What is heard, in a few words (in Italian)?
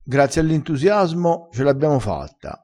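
"intusiasmo", vocabolario dialettale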